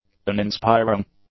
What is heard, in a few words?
"uninspiring", English; adjectives